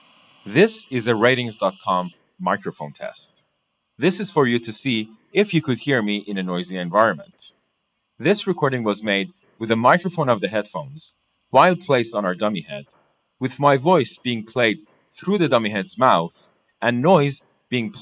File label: usb boom mic noise; gaming headset; Pink Noise; Swedish